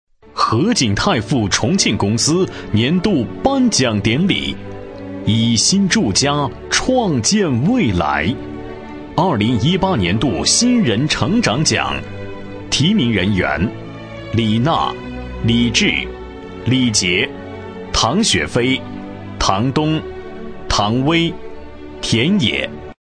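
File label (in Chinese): 男专题 颁奖类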